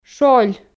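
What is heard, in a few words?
"шоль", Komi, female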